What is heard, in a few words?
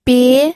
"bie2", pinyin